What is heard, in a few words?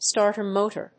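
"starter motor", English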